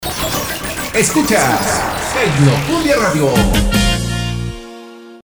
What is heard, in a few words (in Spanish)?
ESCUCHAS TECNOCUMBIA RADIO; demo publicidad